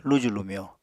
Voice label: "luju-lumyo", Apatani